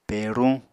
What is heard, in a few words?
"peruñ", apatani